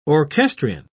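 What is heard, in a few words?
"orchestrion", English, British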